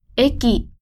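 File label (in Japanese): "駅", Japanese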